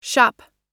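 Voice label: "shop", American English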